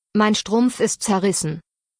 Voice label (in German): "Strumpf", deutsch; damenbekleidung